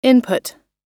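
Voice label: "input", English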